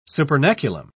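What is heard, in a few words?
"supernaculum", English, British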